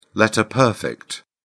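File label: "letter-perfect", British English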